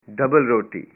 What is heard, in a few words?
"bread", punjabi